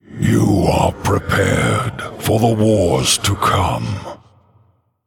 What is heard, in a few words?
Male Human Well Played